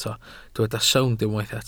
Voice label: "sownd", Welsh